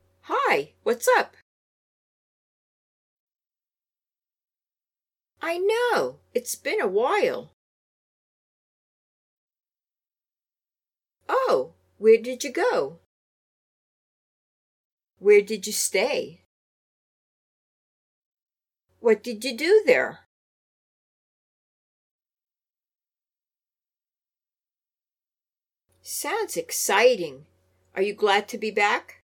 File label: Natural Dialog A Part